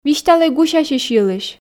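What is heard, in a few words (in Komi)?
"висьталӧй гусясьысь йылысь", Komi, female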